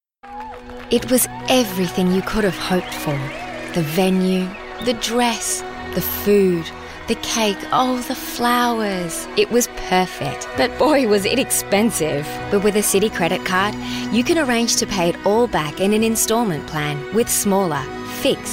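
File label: English Australian, female, Commercial, voice over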